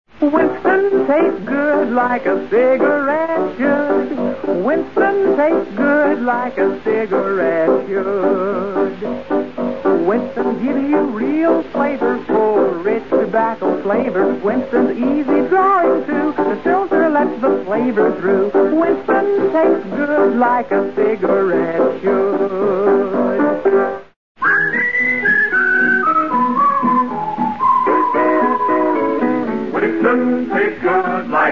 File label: Commercials Cigarettes; Radio Commercials Shows; Winston Cigarettes